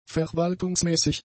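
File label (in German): "verwaltungsmäßig", German; adjectives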